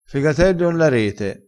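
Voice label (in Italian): "fegatelli on la rete", vocabolario dialettale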